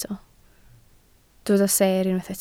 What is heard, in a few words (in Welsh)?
"seer", Welsh